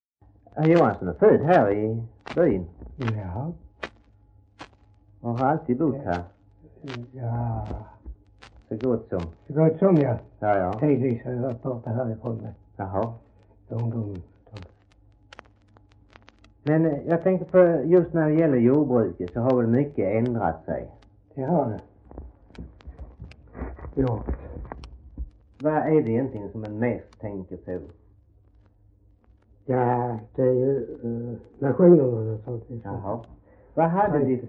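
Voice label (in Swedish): Röstånga Skåne, Svenska sagor, dialekter; Folkminnen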